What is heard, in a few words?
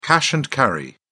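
"cash and carry", British English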